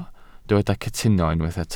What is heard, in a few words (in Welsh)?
"cytuno", Welsh